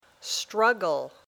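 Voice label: "struggle", English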